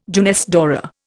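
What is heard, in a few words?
"jeunesse dorée", Indian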